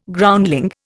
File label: "Groundling", Indian